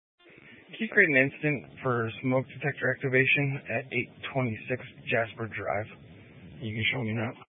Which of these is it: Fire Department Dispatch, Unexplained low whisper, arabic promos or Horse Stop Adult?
Fire Department Dispatch